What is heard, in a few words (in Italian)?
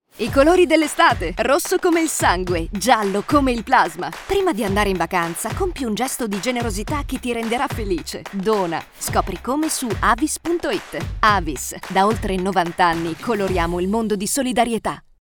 spot radio